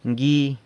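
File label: "ngui", San Lucas Quiaviní Zapotec; sour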